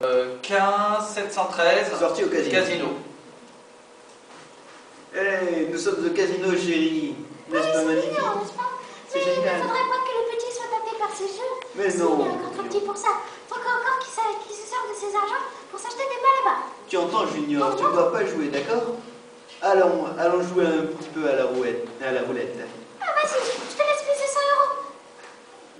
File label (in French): DOUBLAGE